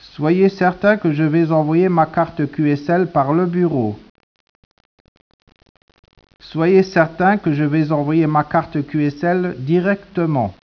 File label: speech, French